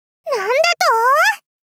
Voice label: なんだと～！ 幼女×萌え系×高音域

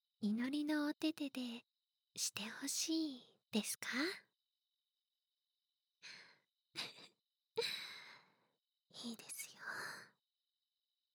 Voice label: 耳かき 囁き; 耳かき屋いのり

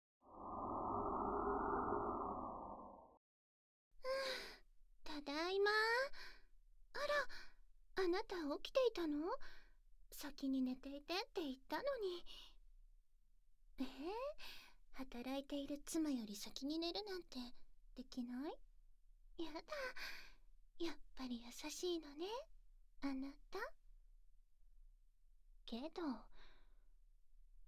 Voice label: ダブル授乳で一家団らん 効果音入り; エンディング

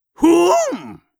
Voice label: "ふぅぅんっ！！！", 戦闘ボイス 老人 高音

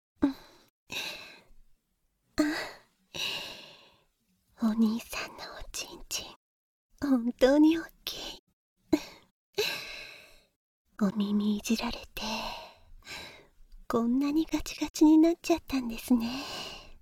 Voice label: オイルパイズリ フェラ(バイノーラルマイク 効果音あり大きめ)

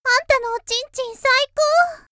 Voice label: 少女, 元気な少女; あんたのおちんちん最高

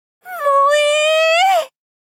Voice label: 萌え～！！！！ 少女×中音域

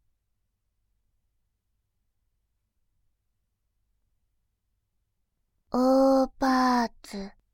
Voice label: オーパーツ, バイノーラル左, ASMR

